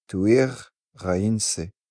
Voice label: civ male repair, napatan